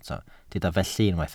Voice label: "felly", Welsh